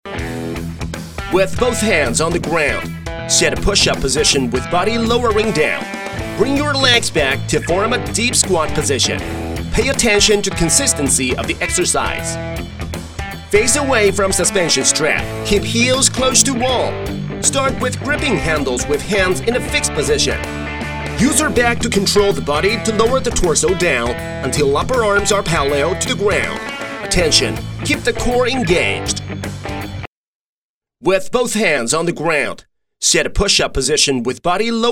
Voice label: 英男 美式 健身 年轻音色; 健身app

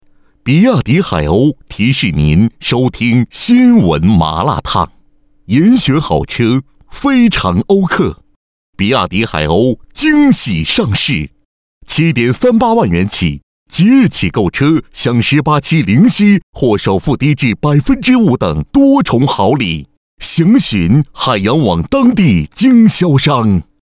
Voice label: 男 电台广告

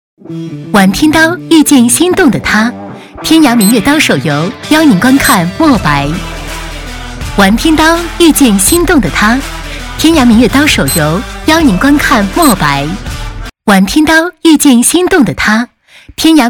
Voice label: 女 预告 导视

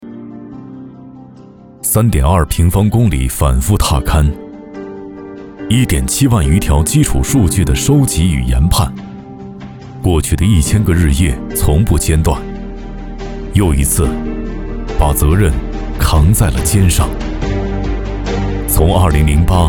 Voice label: 男 走心 叙述感 情境感的

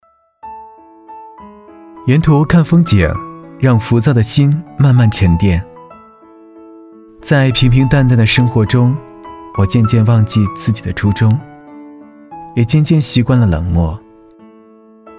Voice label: 男-走心专题-年轻温暖

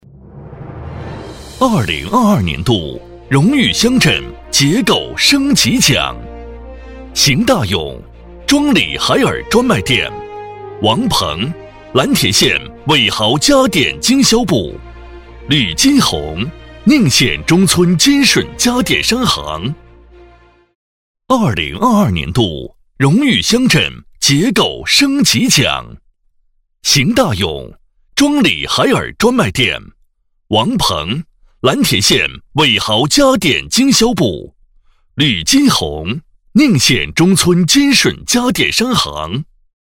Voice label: 男 颁奖 升级奖

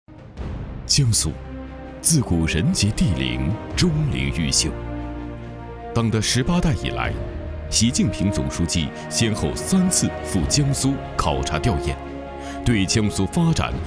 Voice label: 男 专题 法院工作; 江苏法院工作

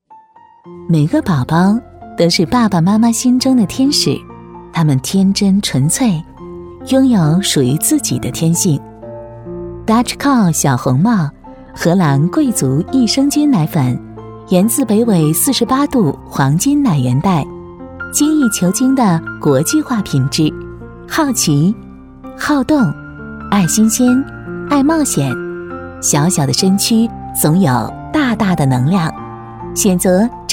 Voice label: 女 广告 温馨

